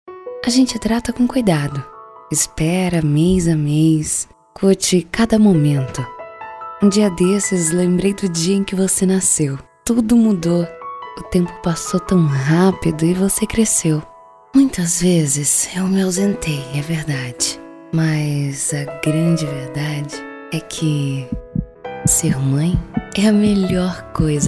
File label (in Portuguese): LOCUTORA NATURAL EMOTIVA